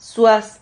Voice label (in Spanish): "suas", silabas